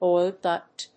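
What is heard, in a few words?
"oil duct", English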